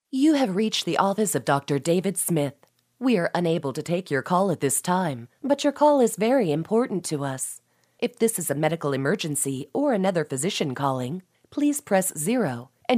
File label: Smooth Professional Dry